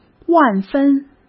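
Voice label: "万分", Mandarin Chinese; chinese-flashcards; hsk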